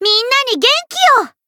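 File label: Voice heal, Japanese; ciel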